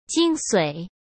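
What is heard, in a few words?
"精髓", Mandarin Chinese; chinese-flashcards; hsk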